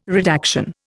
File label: "redaction", Indian